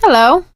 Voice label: scenario join, survivor voice